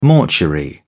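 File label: "mortuary", British English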